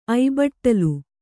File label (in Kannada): "ಐಬಟ್ಟಲು", Kannada